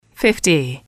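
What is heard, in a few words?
"fifty", English, female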